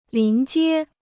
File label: "临街", Chinese